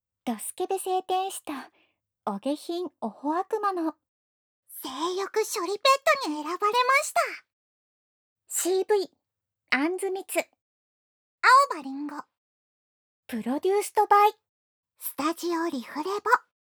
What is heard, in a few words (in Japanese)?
タイトルコール, ASMR